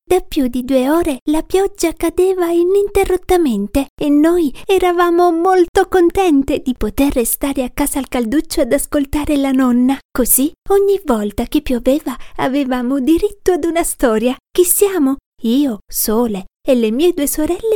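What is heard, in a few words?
Italian, female, child character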